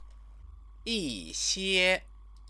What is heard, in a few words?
"yixie", Chinese; 一 些; some; number; Chinese lessons